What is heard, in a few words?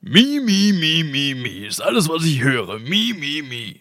mimimi, german